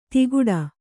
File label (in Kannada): "ತಿಗುಡ", Kannada